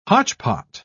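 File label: "hotchpot", British English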